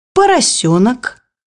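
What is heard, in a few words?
"piglet", Russian; bukvar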